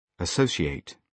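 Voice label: "associate", British English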